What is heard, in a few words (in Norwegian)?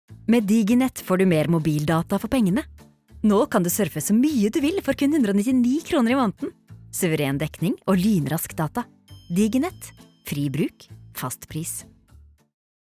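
Norwegian reklame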